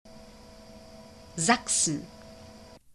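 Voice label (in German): "sachsen", German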